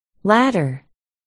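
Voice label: "ladder", spoken word, articulation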